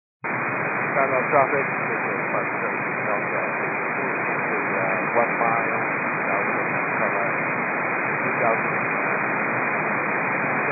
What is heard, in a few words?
122.925 MHz; scanner radio